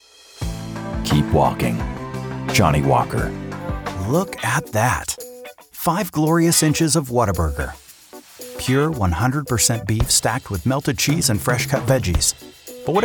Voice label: Commercial Demo